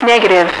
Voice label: "negative", radio, female